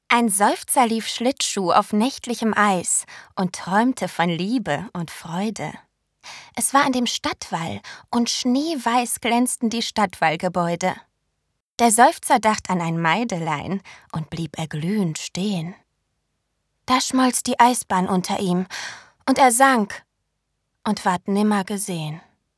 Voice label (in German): Gedicht Seufzer